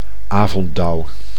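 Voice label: "avonddauw", Dutch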